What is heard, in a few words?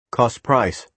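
"cost price", American English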